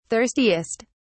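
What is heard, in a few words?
"thirstiest", British English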